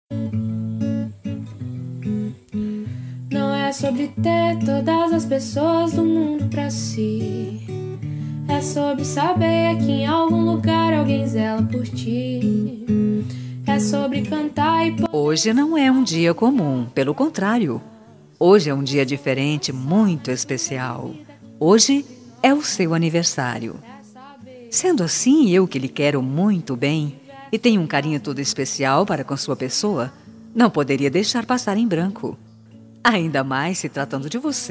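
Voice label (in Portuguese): birthday NEUTRO SING female, telemensagem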